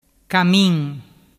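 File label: "camin", Vernazzese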